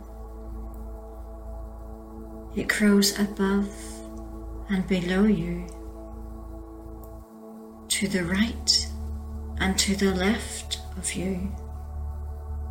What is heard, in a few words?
meditations visualisations expand heart send out love